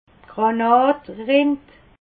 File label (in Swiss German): "granatrind", alsace